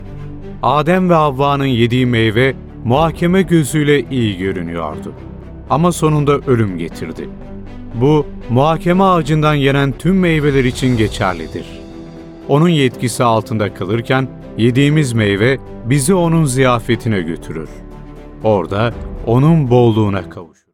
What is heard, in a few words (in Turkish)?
belgesel anlatimi